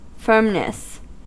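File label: "firmness", US English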